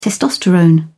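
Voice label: "testosterone", English, British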